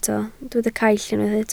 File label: "caill", Welsh